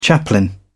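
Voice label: "chaplain", British English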